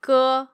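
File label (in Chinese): "ge", Mandarin Chinese, female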